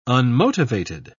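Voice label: "unmotivated", British English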